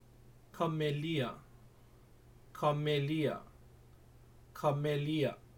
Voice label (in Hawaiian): Ka Melia, hawaiian pronunciation; hawaiian pronunciation guide